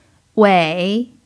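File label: "wei3", Chinese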